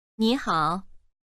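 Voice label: "ni3 hao3", Chinese, female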